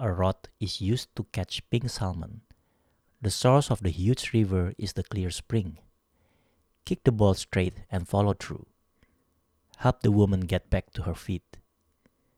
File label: RODE PodMic test